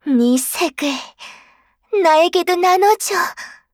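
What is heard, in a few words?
kill Player, Voice Line, Korean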